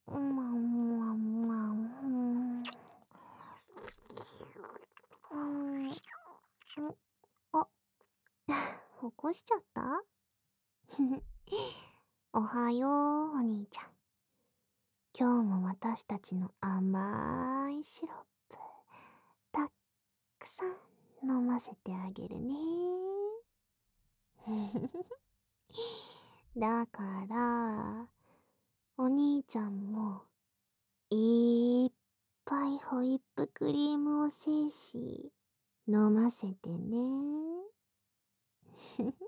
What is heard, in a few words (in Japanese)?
SEなし 目覚ましアラーム音声; グレーテルのえっちな…